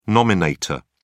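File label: "nominator", British English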